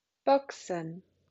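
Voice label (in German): "boxen", German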